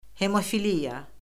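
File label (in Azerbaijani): "hemofiliya", Azerbaijani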